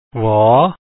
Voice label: w sound, lao